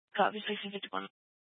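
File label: Dispatch, Scanner audio recording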